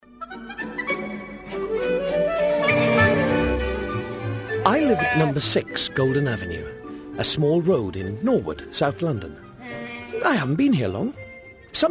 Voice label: Narration Reading; Light

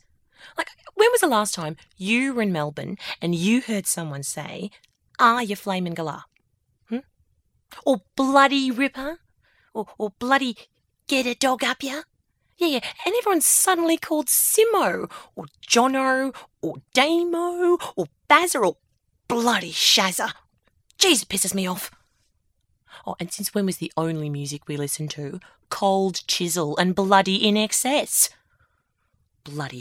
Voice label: Narration Australian Accent

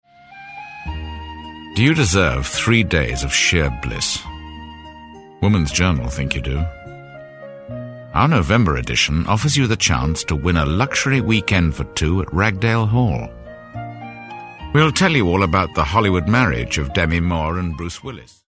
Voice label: Commercial; Womans journal

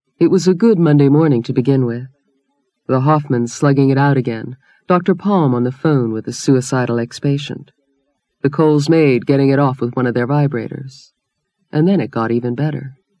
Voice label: Narration Reading; Vocal Point

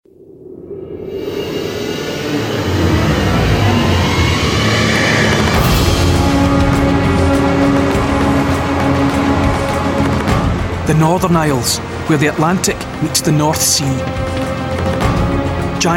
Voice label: Coast Narration

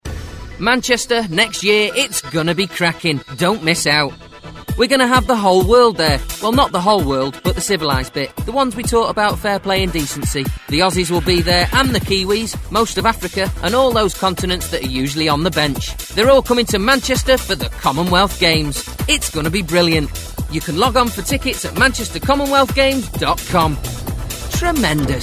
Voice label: Commercial; Commnwlth games; Vocal Point

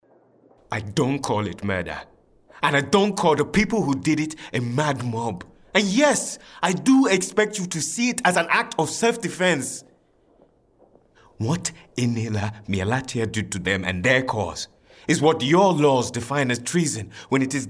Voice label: Drama African Accent

